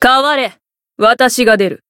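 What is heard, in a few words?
variable chant, Japanese; minalca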